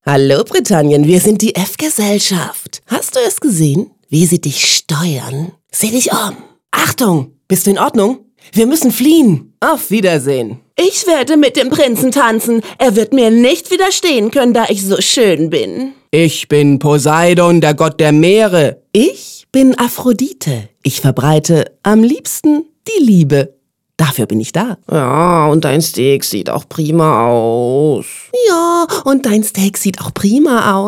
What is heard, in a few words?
Characters Mix